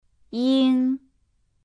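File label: "ing", Mandarin Chinese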